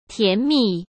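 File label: "甜蜜", Mandarin Chinese; chinese-flashcards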